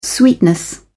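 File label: "sweetness", British English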